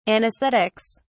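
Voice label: "anaesthetics", British English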